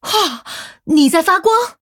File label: Female Human Wow; zh